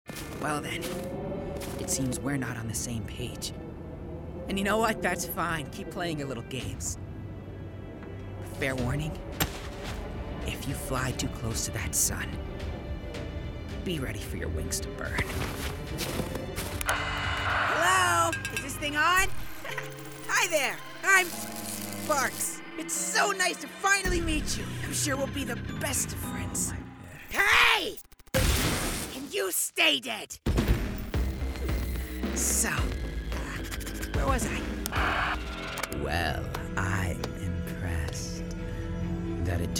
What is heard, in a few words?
Male Animation